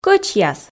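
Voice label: "кӧчьяс", Komi, female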